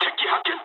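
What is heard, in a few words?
Japanese enemy detected mood low; War Thunder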